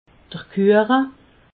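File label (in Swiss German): "kuacha", Alsatian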